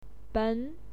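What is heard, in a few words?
"ben", Mandarin Chinese, second tone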